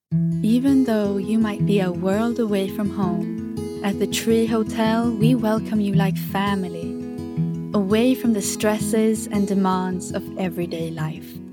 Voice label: English Swedish Accent; Scandinavian, Swedish, Male, Studio, 30s-40s. Based in Sweden.